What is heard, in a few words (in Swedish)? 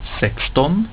"sexton", swedish; getal